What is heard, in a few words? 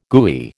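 "gooey", American English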